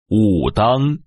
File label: "Wudang", Chinese